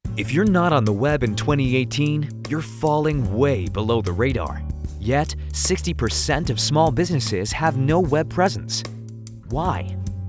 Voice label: Internet US

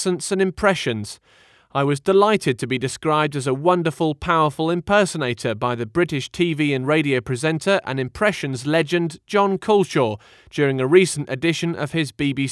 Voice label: Dry Voice Sample

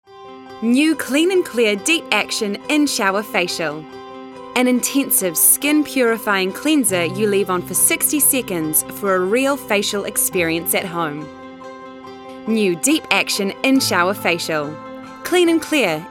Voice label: Voiceover Reel

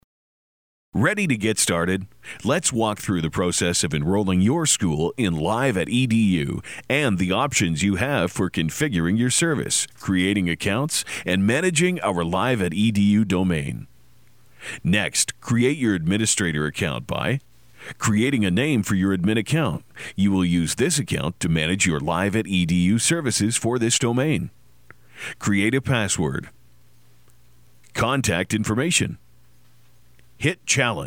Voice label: male narration for training video

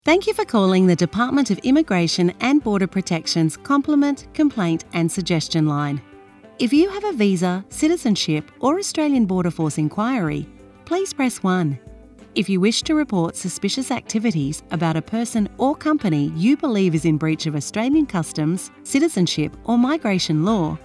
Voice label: telephony

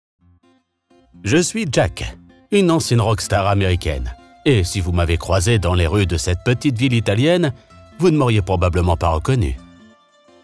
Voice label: audiobook, French Male Voice; Audiobooks; Rockstar In Italy

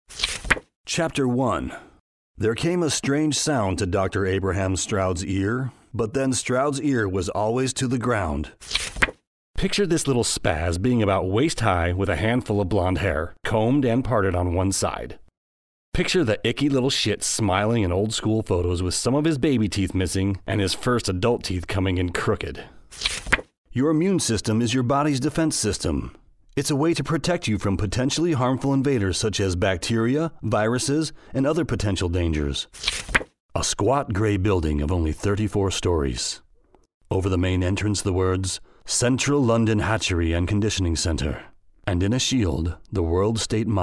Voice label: Audiobook

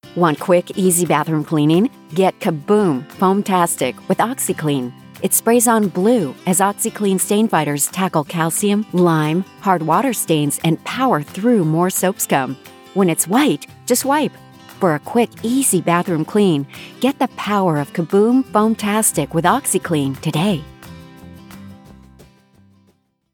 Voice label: Commercial Upbeat Fun Friendly Energetic

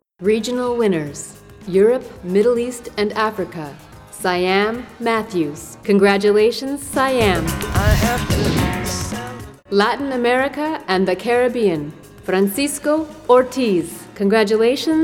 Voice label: Live announcer

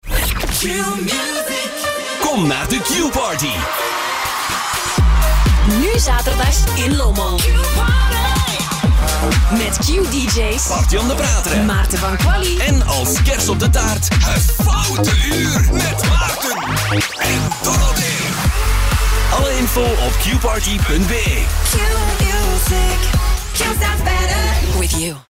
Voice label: Flemish Radio Imaging; Qparty Trailer

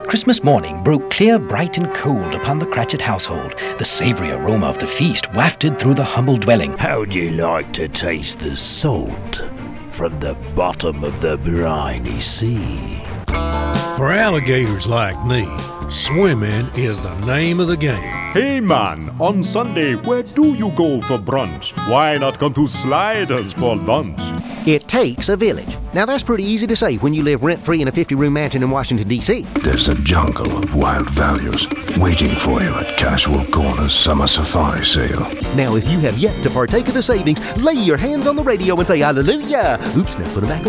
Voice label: Character Voices

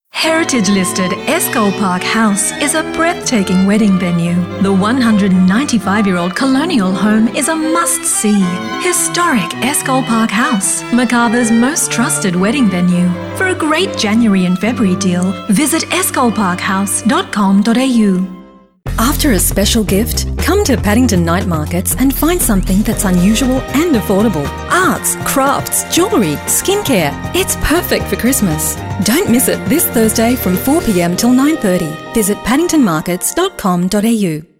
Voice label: Radio ads

